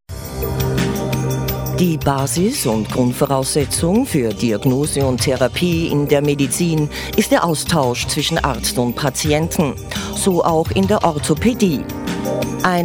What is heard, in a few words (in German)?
Doku